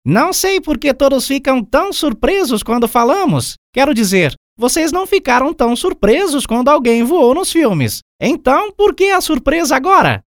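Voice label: Character Monkey Portuguese Brazil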